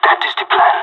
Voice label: agree, pirate; Counter-Strike Global Offensive